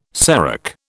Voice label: "serac", British

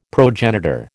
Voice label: "progenitor", American

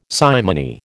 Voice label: "simony", American

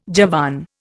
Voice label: "Javan", Indian

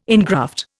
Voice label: "engraft", Indian

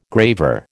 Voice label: "graver", American

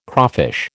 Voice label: "crawfish", American

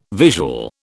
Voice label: "visual", British

